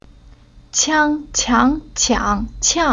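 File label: "Qiang", Chinese syllable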